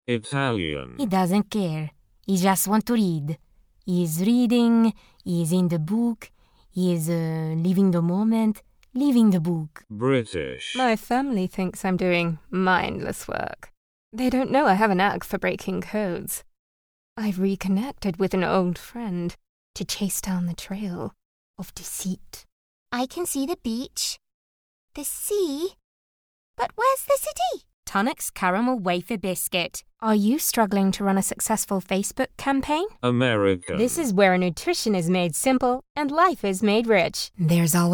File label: Accent Reel